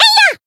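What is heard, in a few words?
Voice attack Japanese; myungwha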